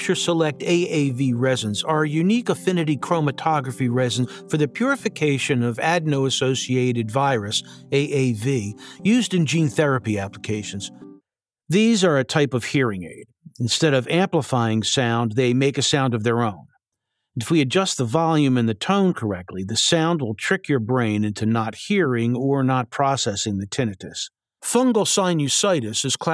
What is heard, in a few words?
Medical Narration